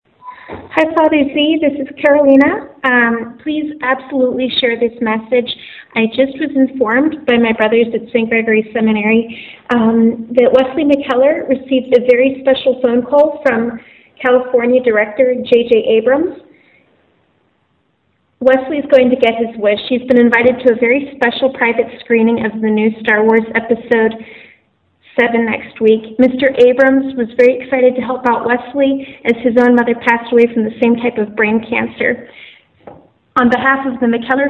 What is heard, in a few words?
VoiceMail